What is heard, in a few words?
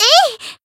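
attack, Japanese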